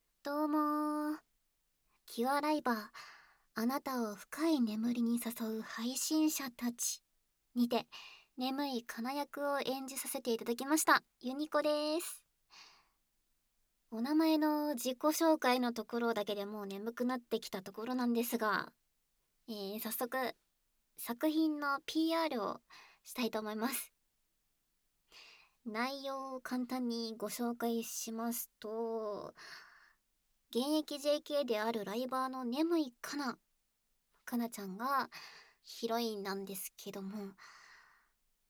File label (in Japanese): PRボイス